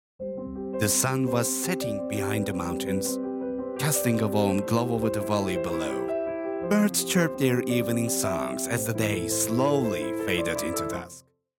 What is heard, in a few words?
story